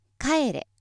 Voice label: female